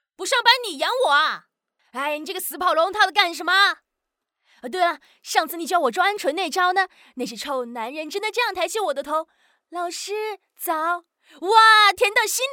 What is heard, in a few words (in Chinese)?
动画 模仿; 张柏芝